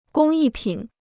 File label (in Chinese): "工艺品", Chinese